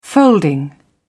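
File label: "folding", British English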